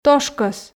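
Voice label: "тошкӧс", Komi, female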